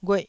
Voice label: "ghueh4", Taiwanese syllable

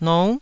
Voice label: "nou9", taiwanese

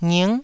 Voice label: "nging9", Taiwanese

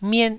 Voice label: "miet1", taiwanese

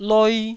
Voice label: "loi1", Taiwanese syllable

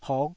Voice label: "hok5", Taiwanese syllable

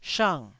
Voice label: "shang4", taiwanese

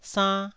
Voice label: "sann1", taiwanese

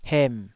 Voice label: "hem3", taiwanese

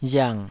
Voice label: "riang3", Taiwanese

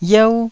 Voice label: "yeu1", taiwanese

